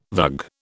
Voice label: "vug", British

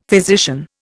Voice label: "physician", Indian; Dictionary

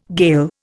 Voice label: "Gael", Indian

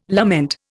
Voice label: "lament", Indian

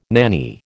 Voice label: "nanny", American

